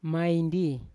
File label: "maindi", Yawa; weak